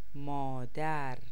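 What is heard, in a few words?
"مادر", Persian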